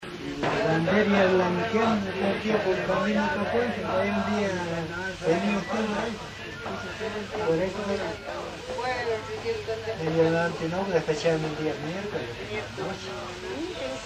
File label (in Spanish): voces y discursos